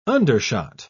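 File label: "undershot", English, British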